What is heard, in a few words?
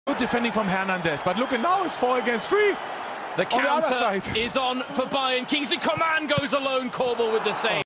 English football reporter